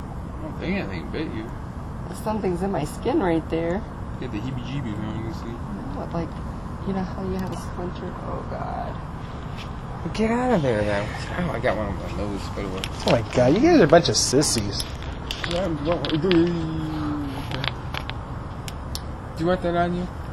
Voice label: odd voice wheel house; willis b boyer ship; EVP